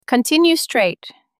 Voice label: Female Continue Straight